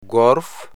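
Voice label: "gwärf", amharico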